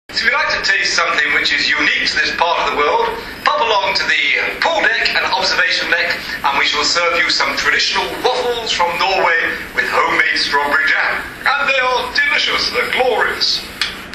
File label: waffles announcement